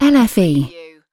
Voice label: "LFE1", UK female; surround; lynne publishing